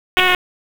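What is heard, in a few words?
EMS Dispatch; wake; p25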